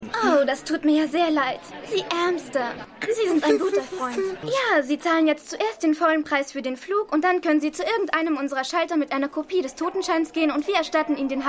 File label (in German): Ticketverkaeuferin, Stimmproben; Seinfeld